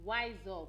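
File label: "wiseup", Nigerian Pidgin, female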